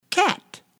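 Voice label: "cat", English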